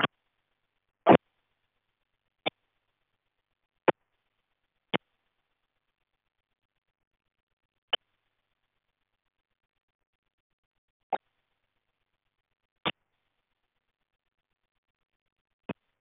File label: Space to Ground; Expedition 39